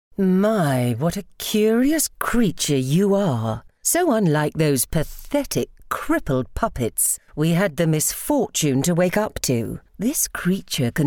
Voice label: Character - Wicked queen